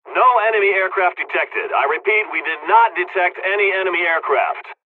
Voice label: dialogs turkish; War Thunder